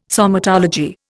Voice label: "somatology", Indian